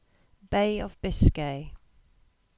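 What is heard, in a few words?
"Bay of Biscay", English; place-names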